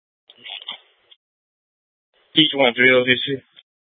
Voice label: Light Rail Ops, scanner

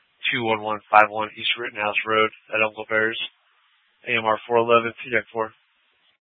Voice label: FD Dpts VHF 156.240 Patch

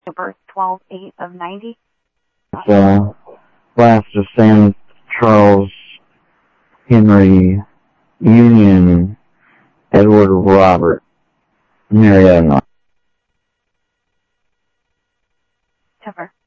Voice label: Sheriff Common, scanner audio trunk; MCSO - Info; ThompsonPeakScot

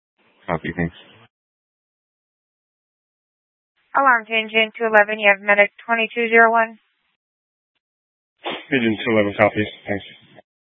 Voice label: Fire Departments Mesa Simulcast; TOPAZ

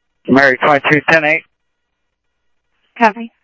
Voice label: scanner audio trunk

